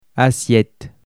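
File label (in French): "assiette", French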